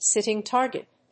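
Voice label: "sitting target", English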